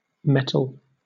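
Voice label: "mettle", British English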